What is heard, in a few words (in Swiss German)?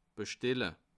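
"bstelle", Bas Rhin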